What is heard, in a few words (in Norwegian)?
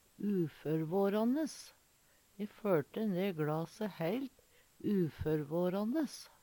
"uførvåranes", Numedalsmål; uforvarande; E førte ne gLase heilt uførvåranes.; Adverb